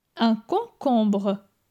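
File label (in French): "Un concombre", French; vocabulaire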